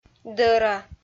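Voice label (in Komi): "дӧра", Komi, female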